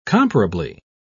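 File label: "comparably", British English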